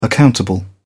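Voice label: "accountable", British English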